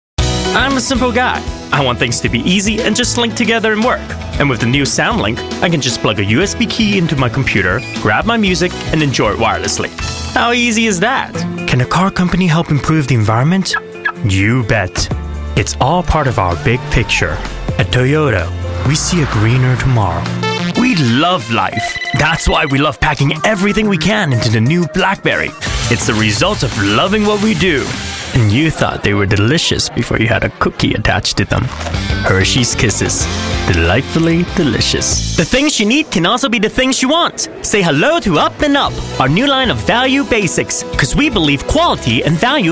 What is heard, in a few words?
commercial vo